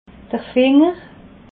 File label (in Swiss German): "finger", alsace